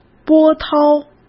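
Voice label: "波涛", Mandarin Chinese; hsk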